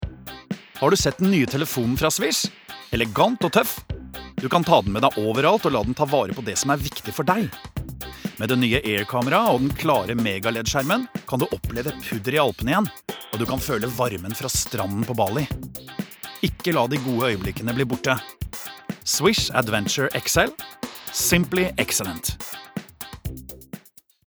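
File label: no fresh, Norwegian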